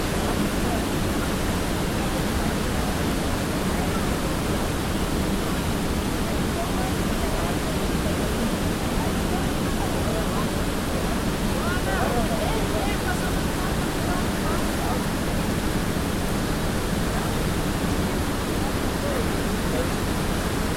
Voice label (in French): voix de touristes